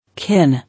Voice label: "Kin", English